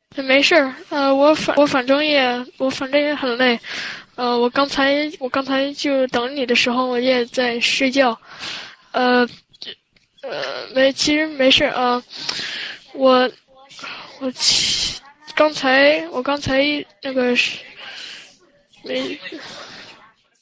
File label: Chinese Conversation